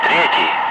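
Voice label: Russian bright; Ka-50; Wingman; DCS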